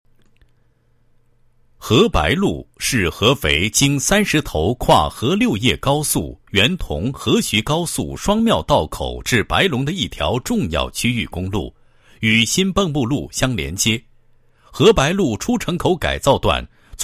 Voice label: 男 解说词; 优质工程奖

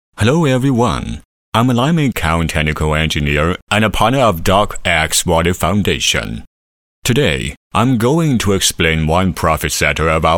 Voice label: 英男 专题 自然平时说话的语气 角色

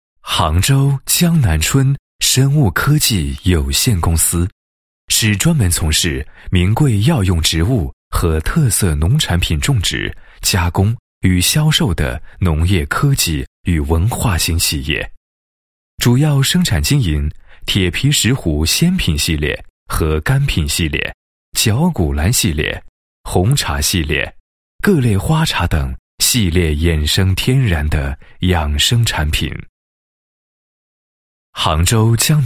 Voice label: 男 企业 宣传; 江南春堂生物科技有限公司

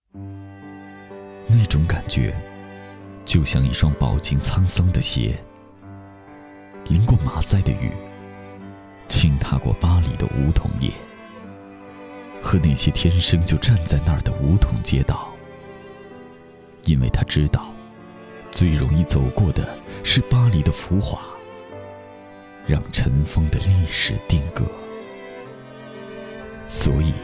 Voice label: 男 商业广告 大气深情

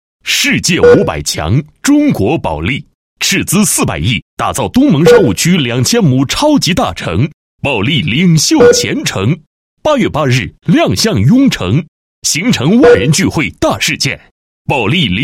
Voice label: 男 广告, 配音; 保利领秀前城

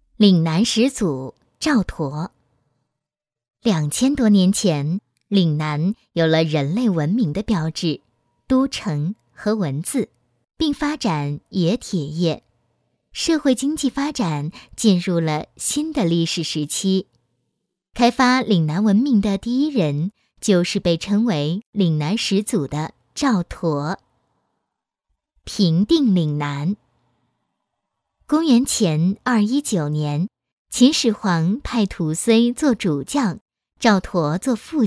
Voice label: 女 课件 儿童读物类型 故事

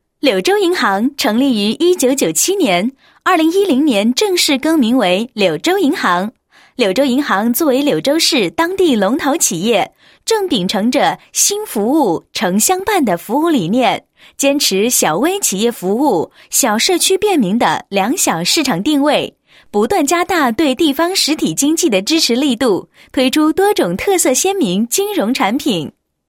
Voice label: 女, 产品介绍; 柳州银行产品介绍

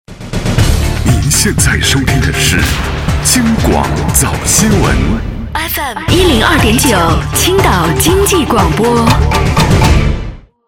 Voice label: 男 广播片花 稳重台号 早新闻

